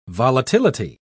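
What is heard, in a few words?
"volatility", British English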